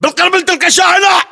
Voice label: landmark near vanwhite, localized english battlechatter